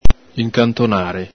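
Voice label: "incantonare", Venetian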